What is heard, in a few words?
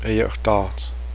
constipated, Cheyenne